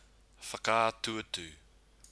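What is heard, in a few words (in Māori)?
"whakaatuatu", Māori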